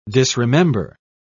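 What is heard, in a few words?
"disremember", British English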